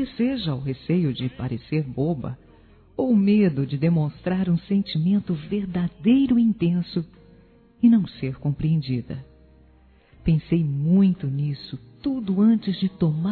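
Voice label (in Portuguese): Preciso dizer que te amo, feminino; Telemensagens; Românticas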